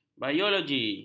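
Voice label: "biologi", Malay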